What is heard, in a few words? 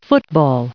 "football", en-us